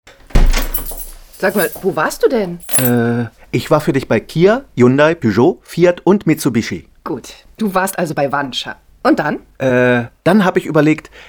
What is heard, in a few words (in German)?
Radiospot Befragung; enorMedia; Wandscher Gruppe